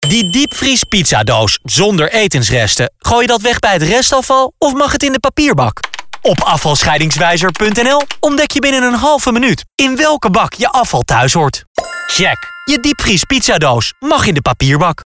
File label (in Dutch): Spot